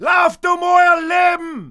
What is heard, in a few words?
fear, dialogue; mohaa